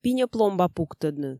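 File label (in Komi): пиньӧ пломба пуктӧдны, Komi, female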